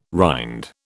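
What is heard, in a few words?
"rind", British English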